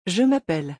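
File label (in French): "je m appelle", French; conjugaison; le verbe s'appeler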